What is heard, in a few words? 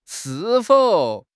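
"shi4 fou3", Mandarin Chinese; HSK; HSK4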